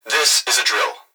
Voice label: Emergency Human Young Male Voice Plant Security Dispatch This Is A Drill; emergency effects